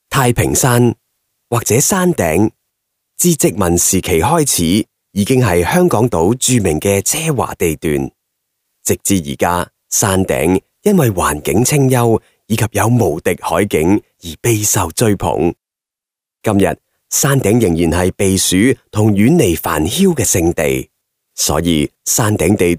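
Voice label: Cantonese male corporate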